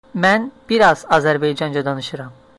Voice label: a little Azeri